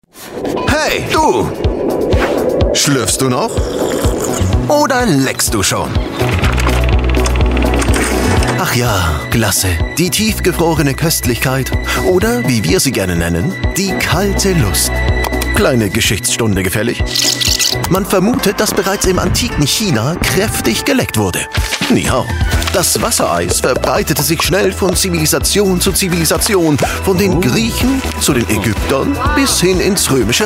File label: german voice over male narrator